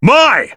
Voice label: Assist Call (ENG); BBTAG